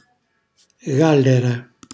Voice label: "gallara", Calabrese; ernia; cucina calabrese; Glossario